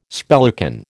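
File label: "spellican", American English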